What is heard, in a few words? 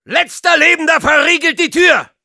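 battlecry, German; Soldier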